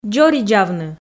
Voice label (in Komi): "дзоридзавны", Komi